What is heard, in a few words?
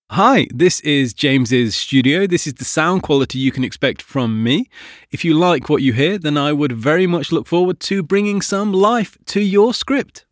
studio sample